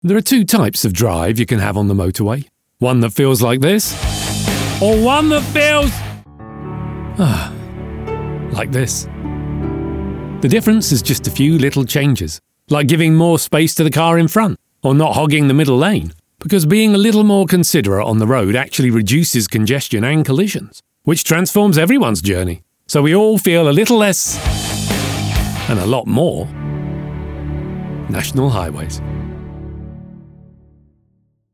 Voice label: Ad; National Highways